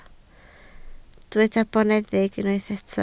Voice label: "boneddig", Welsh